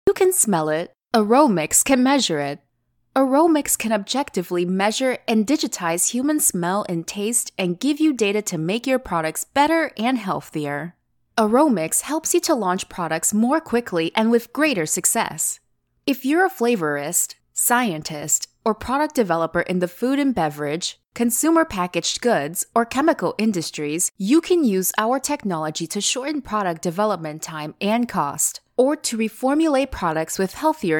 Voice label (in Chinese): 外籍女英 美式英语 产品介绍; 外语